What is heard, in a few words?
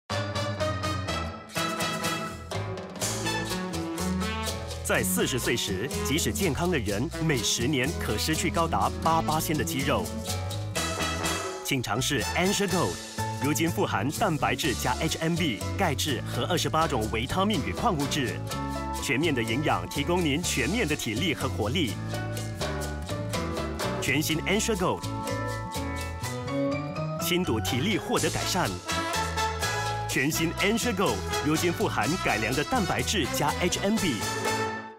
TVC; Ensure Gold